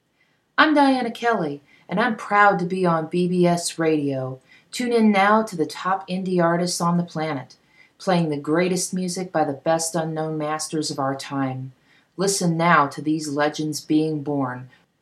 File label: bbs radio promo